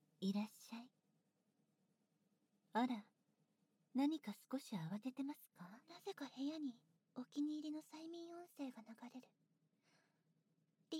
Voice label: 催眠誘導通常, ASMR

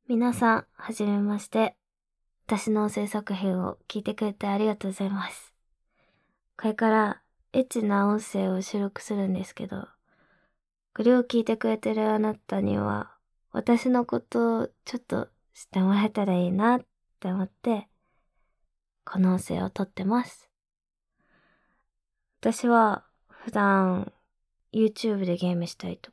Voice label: インタビュー、自己紹介; 【ガチ実演】ドスケベY〇uTuberが変態オホ声オナニー音声を投稿してみた

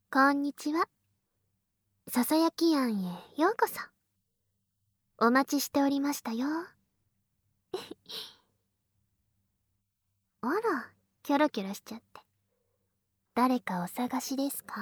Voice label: ささやき庵へようこそ, ASMR

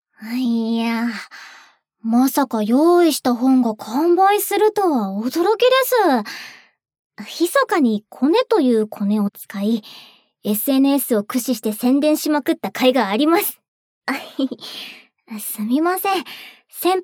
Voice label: エピローグ ボイスオンリー, ASMR; エロ漫画でおまんこ描くために後輩がおまんこみせてくれる(それ以上もしてくれる); バイノーラル

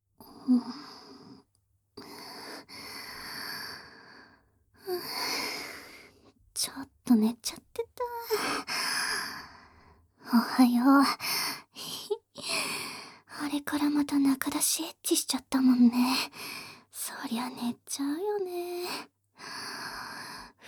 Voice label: ピロートーク; 事後、ララちん決意の告白ピロートーク; NoSFX

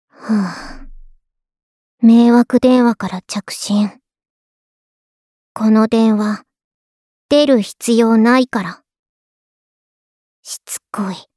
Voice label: 着信ボイス; 春日野穹

